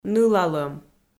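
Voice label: "нылалӧм", Komi, female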